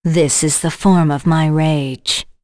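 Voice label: Vox Skill, voices heroes English; Seria; kings raid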